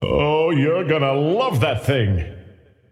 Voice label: scepter announce in game; dota

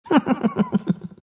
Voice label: monkey laugh; dota; monkey king

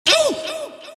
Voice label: monkey crown pain; dota; monkey king

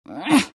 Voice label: anger; queenofpain

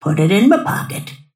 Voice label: lasthit pain; snapfire

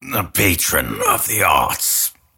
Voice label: lasthit pain; dota; grimstroke

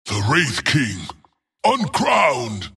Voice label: wraith death; dota; skeleton king

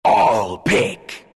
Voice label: announcer all pick, pirate; dlc workshop; capn

